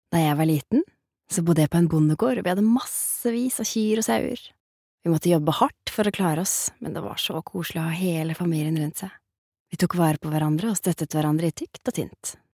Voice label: no dub woman, norsk, kvinne

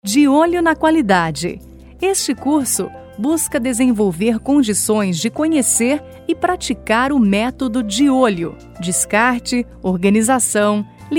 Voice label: br portuguese informative

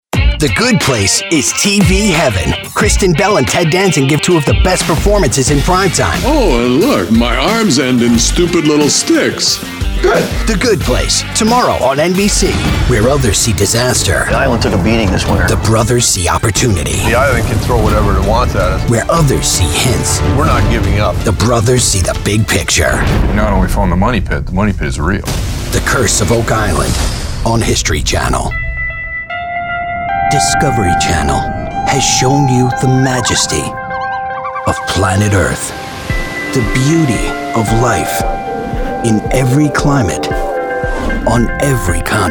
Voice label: US promo

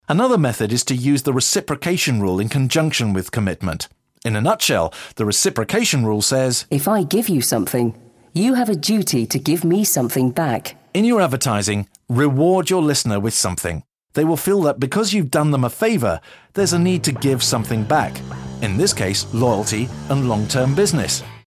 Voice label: uk info

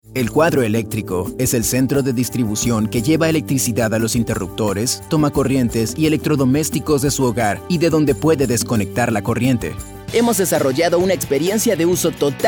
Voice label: spanish latin amercian commercial

